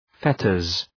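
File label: "fetters", English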